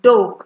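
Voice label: "doke", Nigerian Pidgin, female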